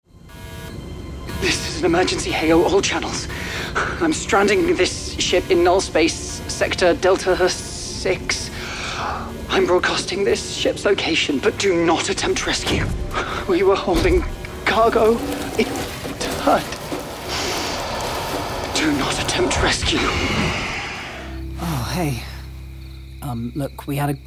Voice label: game reel